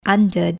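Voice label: "under", English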